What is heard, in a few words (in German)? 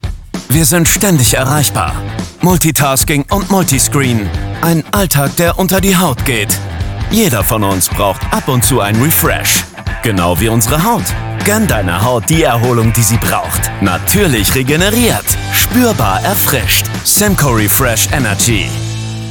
werbung energetisch maskulin